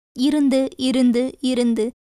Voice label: Tamil

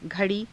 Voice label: "gha_rii", Hindi

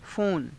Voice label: "fona", Hindi

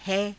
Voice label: "hai", Hindi

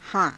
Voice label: "haa~", Hindi

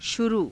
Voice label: "shuruu", hindi

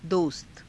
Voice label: "dosta", Hindi

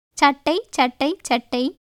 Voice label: Tamil; சட்டை; caṭṭai; [səʈʈəi]; shirt; シャツ

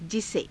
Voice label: "jise", Hindi

